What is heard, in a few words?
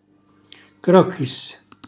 "crocchis", Calabrese; croco